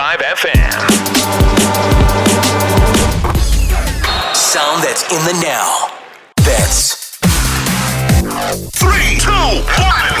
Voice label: sports splat radio imaging